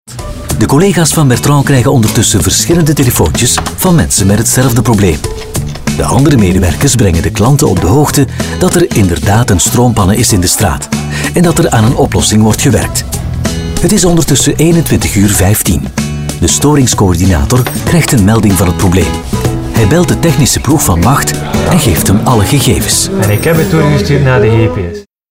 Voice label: Corporate Light; Sibelga